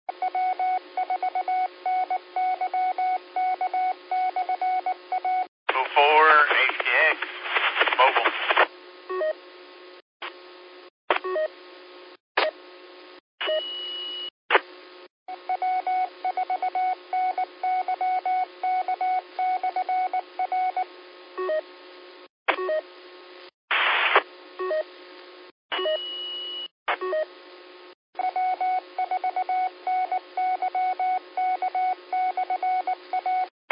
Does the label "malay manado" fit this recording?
No